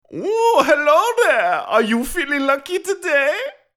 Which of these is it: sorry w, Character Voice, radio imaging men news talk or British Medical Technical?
Character Voice